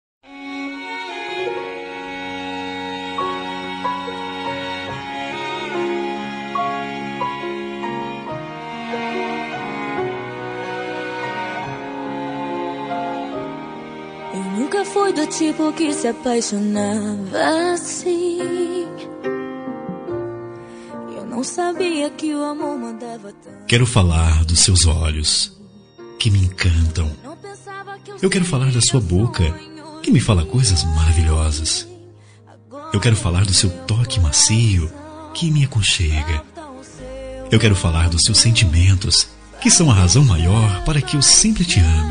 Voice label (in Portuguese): aniver esposa, Telemensagem de Aniversário de Esposa – Voz Masculina